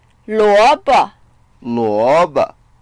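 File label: "luo2bo", Mandarin Chinese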